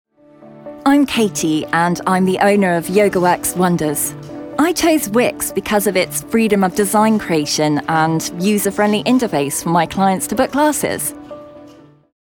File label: first person conversational